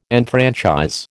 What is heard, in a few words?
"enfranchise", American